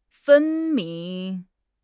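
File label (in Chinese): "分明", Mandarin Chinese; HSK6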